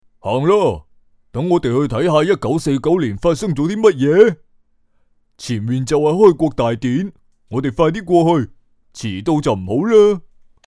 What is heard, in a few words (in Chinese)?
粤男 角色